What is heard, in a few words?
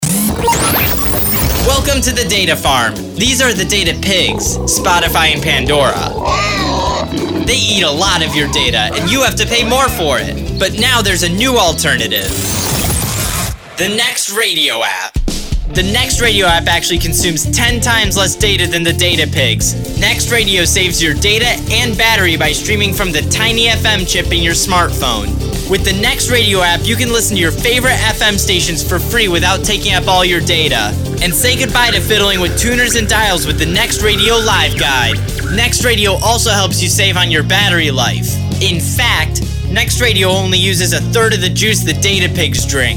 commercial; nextradio; data pigs